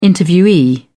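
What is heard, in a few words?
"interviewee", British English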